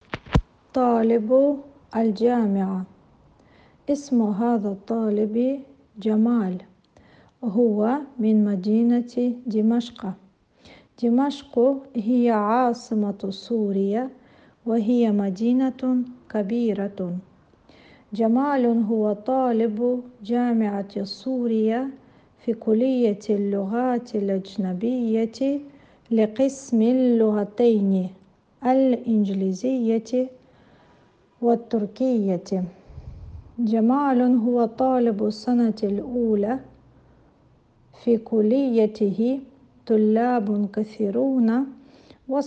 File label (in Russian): Arabskij yazyk